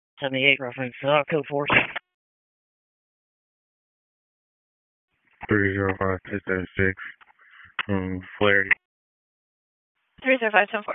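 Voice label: Wake Forest Police Dispatch, p25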